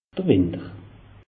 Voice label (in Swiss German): "winter", alsace; meteo